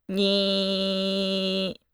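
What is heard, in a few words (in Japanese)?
"に", Japanese syllable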